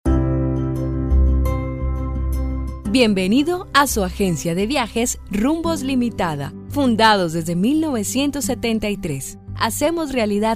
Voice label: CONTESTADORES TELEFONICOS

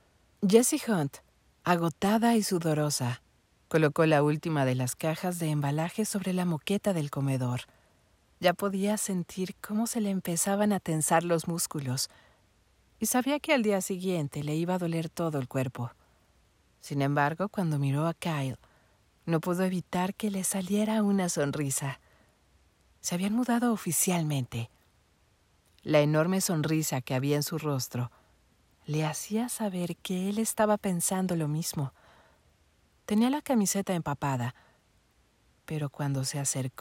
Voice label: Audiolibro

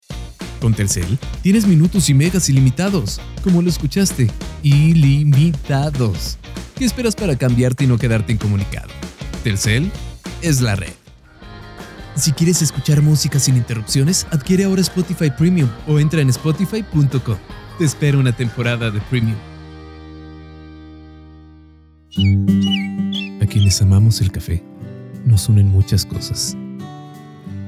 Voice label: COMERCIAL; WIKI

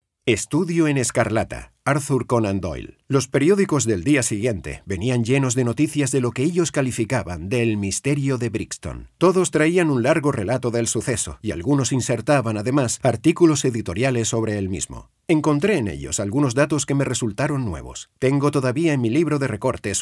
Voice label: demo audiolibros

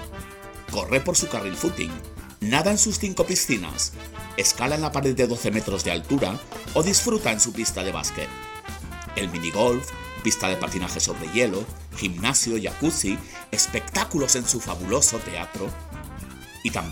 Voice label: CRUCERO PUBLI MONO

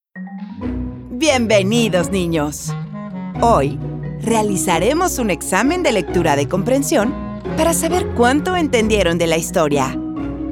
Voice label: E-Learning Sin Pizarra

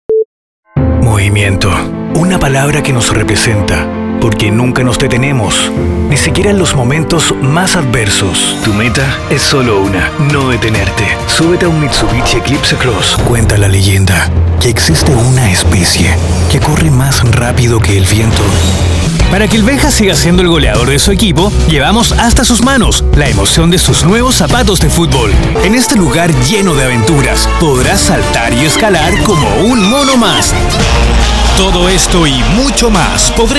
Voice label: Demo Locuciones

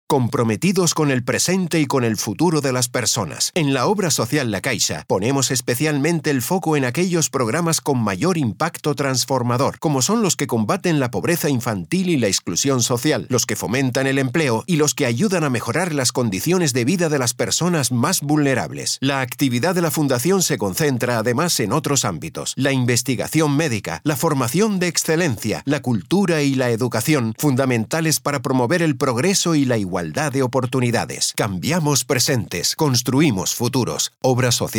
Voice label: demos corporativo

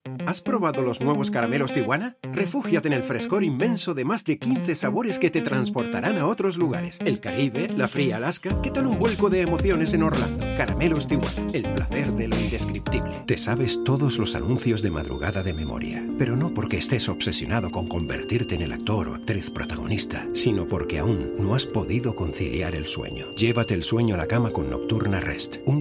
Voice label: COMERCIAL TV

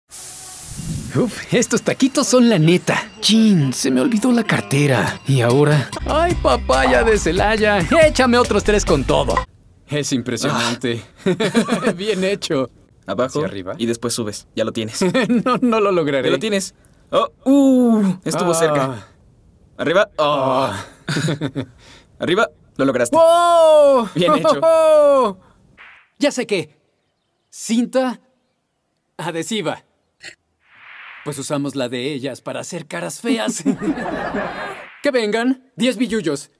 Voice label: Doblaje

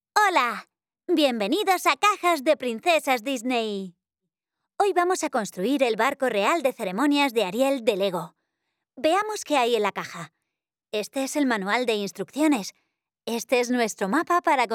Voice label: VOZ INFANTIL ADOLESTENTE

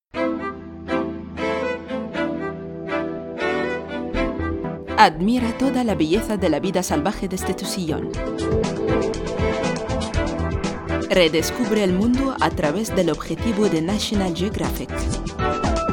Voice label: espanol

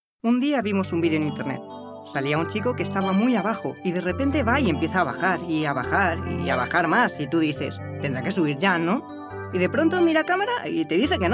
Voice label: anuncio; decathlon

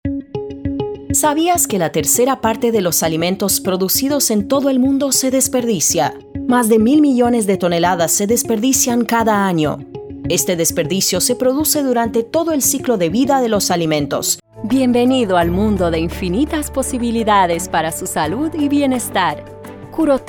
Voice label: Español Neutro

